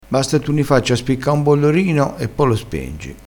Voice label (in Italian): "bollorino", crespinese